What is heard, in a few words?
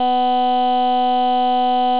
child E